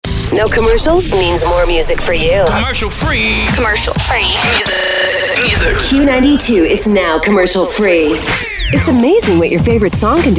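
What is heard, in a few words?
radio imaging women hot adult contemporary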